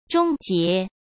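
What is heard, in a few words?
"终结", Mandarin Chinese; chinese-flashcards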